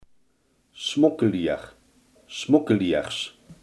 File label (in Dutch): "smokkelaar", Limburgs